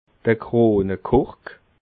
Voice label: "kronekork", alsace

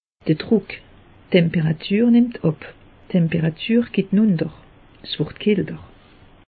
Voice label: "druck", alsace; meteo

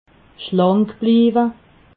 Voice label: "schlankbliiwe", alsace

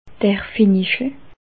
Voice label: "fenichel", Alsace

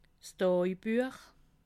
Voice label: "tajbuech", alsace

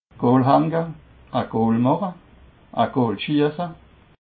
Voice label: "goolhaenge", alsace

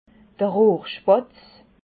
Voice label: "rohrspatz", Alsace

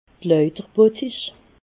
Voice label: "lauterbottich", alsace

